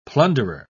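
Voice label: "plunderer", British English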